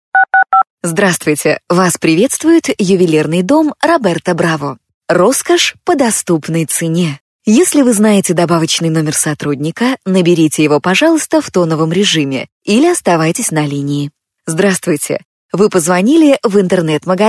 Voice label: woman